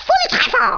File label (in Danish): "Amazing", Danish